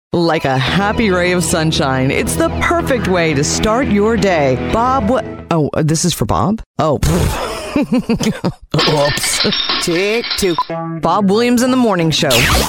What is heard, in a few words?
Radio Imaging